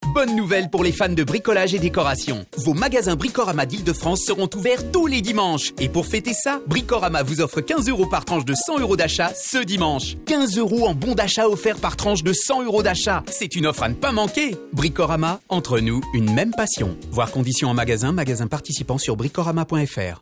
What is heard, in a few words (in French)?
SPOT RADIO; BRICORAMA